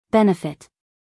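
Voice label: "benefit", American English, female; everyone-can-use-english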